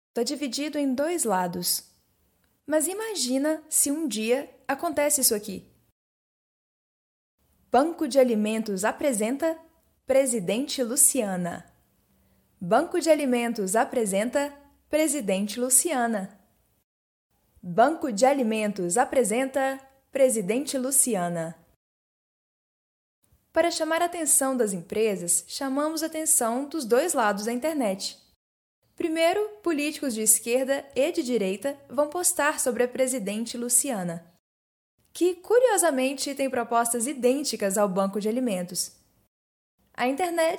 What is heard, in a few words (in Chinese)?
葡萄牙语女声; 外籍